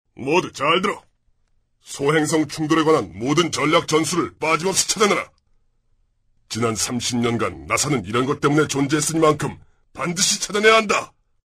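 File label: Korean, male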